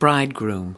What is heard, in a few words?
"bridegroom", us